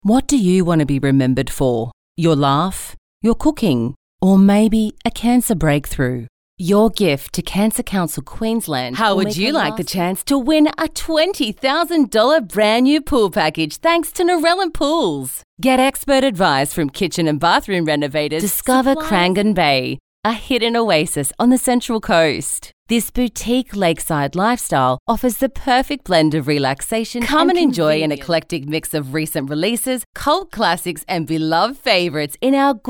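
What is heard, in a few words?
Soft Sell, voice overs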